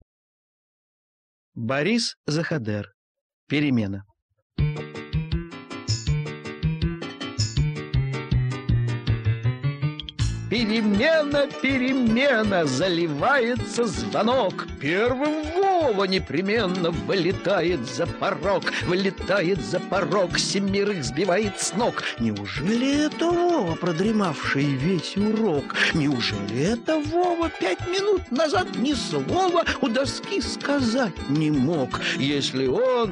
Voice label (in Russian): стихотворение; Б.Заходер; Перемена